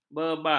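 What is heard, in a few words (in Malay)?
"bebar", Malay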